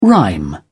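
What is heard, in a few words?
"rhyme", British English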